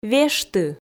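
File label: "вешты", Komi, female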